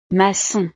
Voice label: "macon", frances; profesiones

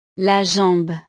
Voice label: "la jambe", frances; partes del cuerpo

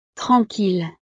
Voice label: "tranquille", frances; estados animo

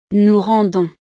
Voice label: "nous rendons", frances

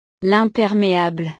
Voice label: "l impermeable", frances; ropa

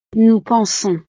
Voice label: "nous pensons", frances

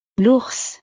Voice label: "l ours", frances

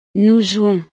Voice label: "nous jouons", frances

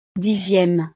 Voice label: "dixieme", frances

